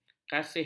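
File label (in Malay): "kasik", Malay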